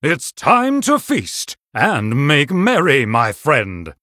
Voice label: Male Human HAPPY HOLIDAYS